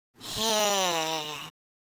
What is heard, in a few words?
sad; Worms3D; Whoopsie